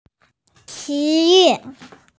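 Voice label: "October", Mandarin, hearing-impaired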